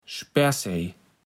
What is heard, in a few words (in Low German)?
"Spersij", platt